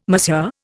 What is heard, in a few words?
"Monsieur", Indian